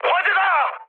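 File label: Korean on fire mood high; War Thunder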